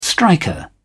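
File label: "striker", British English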